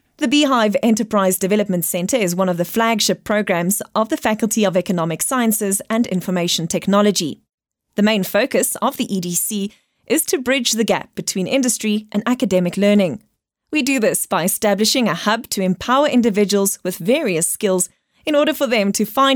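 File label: Afrikaans, female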